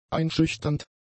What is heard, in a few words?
"einschüchternd", German; adjectives